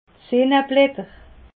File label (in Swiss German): "senneblatter", alsace